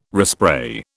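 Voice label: "respray", British English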